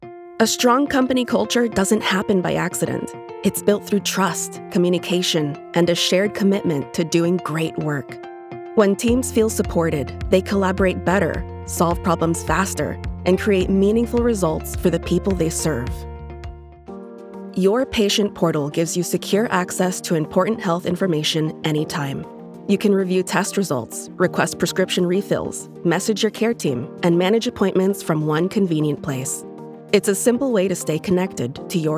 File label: Narration